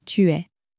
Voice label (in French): "tu es", French